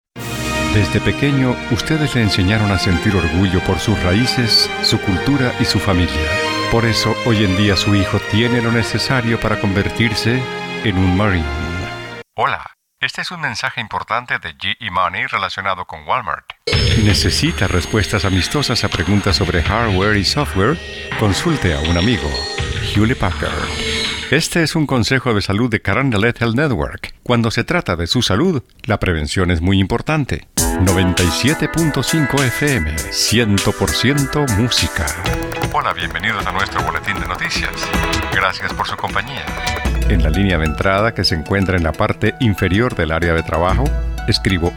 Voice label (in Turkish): Ispanyolca Erkek; seslendirme